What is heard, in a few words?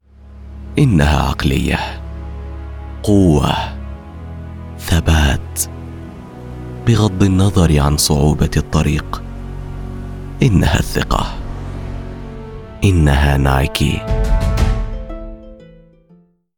Commercial Confident Authentic Standard Arabic; Nike